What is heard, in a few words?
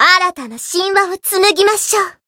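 Voice Japanese; suzette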